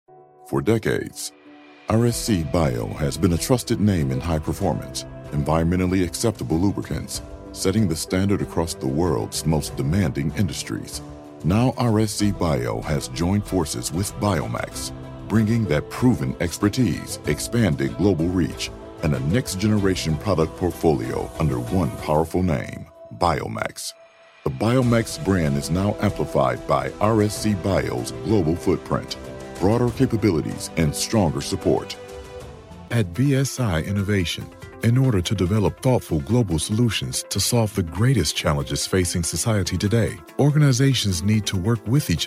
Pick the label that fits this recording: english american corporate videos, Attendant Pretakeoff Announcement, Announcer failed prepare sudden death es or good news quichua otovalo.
english american corporate videos